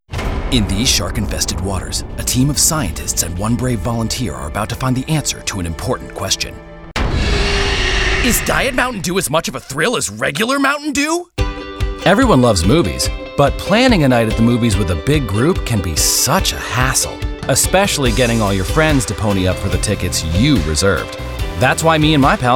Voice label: voice demo; Commercial Reel